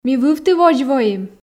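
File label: Komi, female; ми вывтi водз воим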